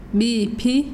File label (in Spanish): "humo", mazahua